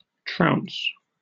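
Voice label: "trounce", British English; pronunciations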